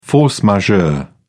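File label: "force majeure", en, uk British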